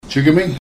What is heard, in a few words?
"gchigmiing" pronunciation; Aadsookaan related words